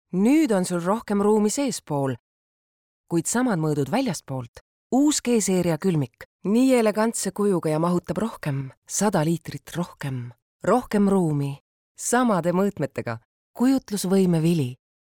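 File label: estonian female narration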